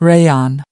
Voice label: "rayon", us